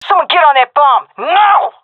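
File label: cover defuse, female; vo; Counter Strike Model Pack; CS:GO; seal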